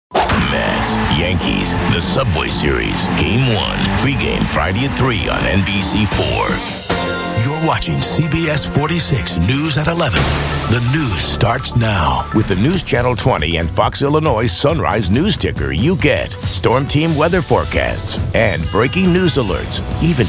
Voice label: tv affiliate men